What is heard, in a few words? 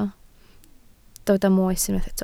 "moes", Welsh